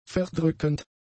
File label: "verdrückend", German; adjectives